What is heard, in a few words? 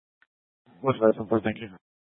Sheriffs Office, scanner